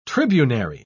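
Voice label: "tribunary", British English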